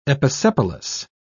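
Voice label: "episepalous", British English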